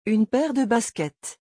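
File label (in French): "une paire de baskets", French; vocabulaire; le lexique des vacances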